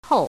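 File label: "tou5" Mandarin Chinese, neutral tone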